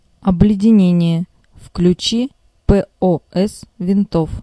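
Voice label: Russian speech, Ka-50; TURN ON ROTOR ANTIICE; Almaz; DCS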